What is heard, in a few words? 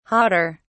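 "jader", British English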